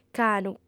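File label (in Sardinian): "canu", female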